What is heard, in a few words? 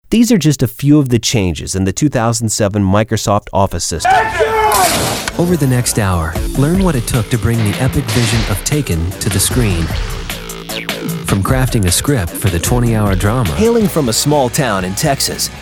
narration